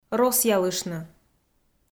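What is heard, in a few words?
"росъялыштны", Komi, female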